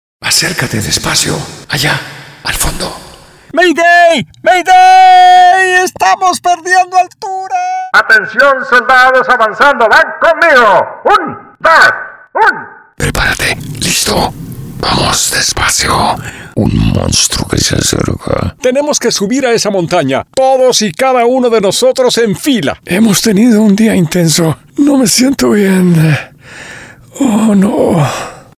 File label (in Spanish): spanish videogames